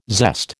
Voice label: "zest", American